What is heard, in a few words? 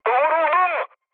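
Turkish aircraft destroyed, mood low, speech; War Thunder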